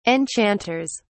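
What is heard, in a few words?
"enchanters", British English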